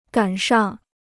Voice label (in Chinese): "赶上", Chinese